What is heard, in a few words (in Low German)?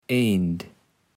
"Eend", kaevels platt